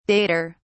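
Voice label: "dater", English, British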